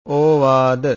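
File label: "ovaada", Pali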